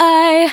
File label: "ay", voice